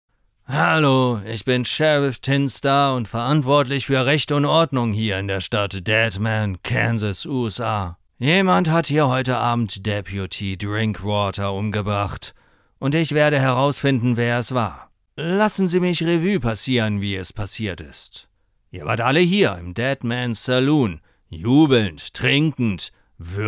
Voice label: German Voice Over theatrical animation